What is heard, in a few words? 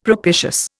"propitious", Indian; Dictionary